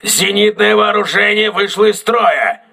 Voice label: aa turret damaged, crew ships russian